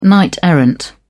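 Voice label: "knight-errant", English, British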